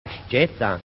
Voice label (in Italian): "sccetta", genovese; 'StSetta/ (schietta)